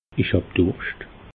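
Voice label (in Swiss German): "durscht", Bas Rhin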